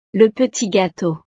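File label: "le petit gateau", French; snacks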